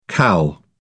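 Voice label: "cal", English, British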